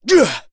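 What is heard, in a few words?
Vox Landing, voices heroes English; kingsraid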